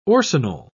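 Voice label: "orcinol", English, British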